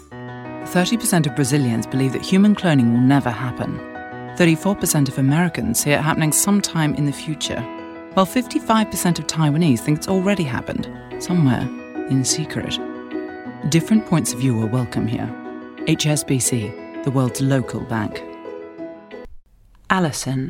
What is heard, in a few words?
UK accent